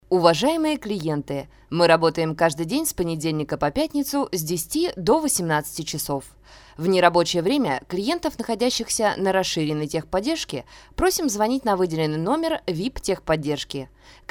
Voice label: IVR; Prima